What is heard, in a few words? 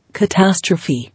"Catastrophe", English